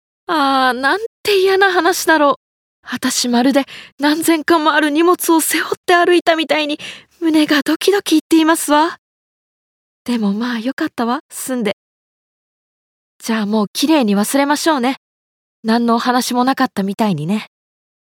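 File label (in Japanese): 古典, ボイスサンプル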